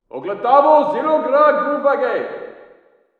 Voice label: walla rus mountain conv